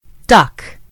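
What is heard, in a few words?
"duck", American English; gcompris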